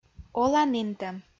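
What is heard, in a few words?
"оланінтӧм", Komi, female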